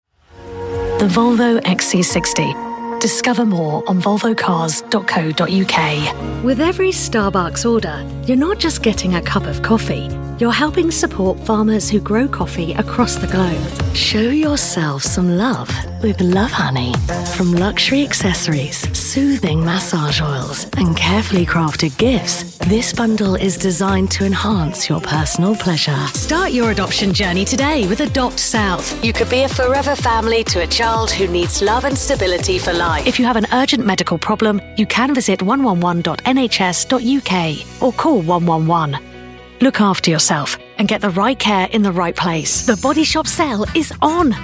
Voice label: commercial, Natural Upbeat Voiceover